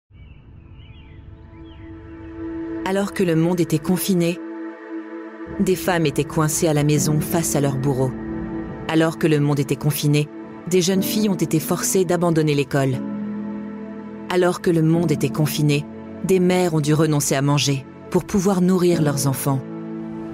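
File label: French Narration; GoLocalise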